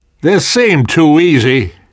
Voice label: world hospital, namvet, survivor voice